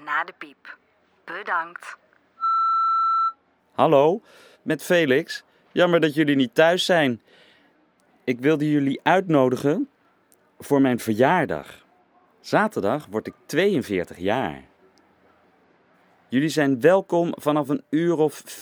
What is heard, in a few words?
Voicemail